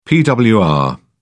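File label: "pwr", British English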